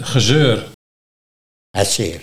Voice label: "Harseer", Nieuw lekkerlands dialect; gezeur

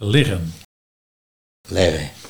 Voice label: "Legge", Nieuw Lekkerlands dialect

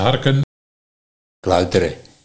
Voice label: "Kluitere", Nieuw lekkerlands dialect; harken

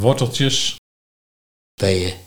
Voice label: Peeje, Nieuw lekkerlands dialect; worteltjes

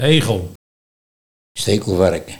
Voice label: "Stekelvarken", Nieuw lekkerlands dialect; egel

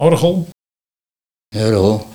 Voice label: "Urgel", Nieuw lekkerlands dialect

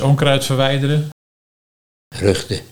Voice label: "Ruchte", Nieuw lekkerlands dialect

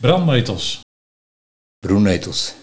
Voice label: "Brunetels", Nieuw lekkerlands dialect; Brandnetels

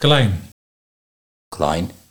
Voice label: "Klen", Nieuw lekkerlands dialect

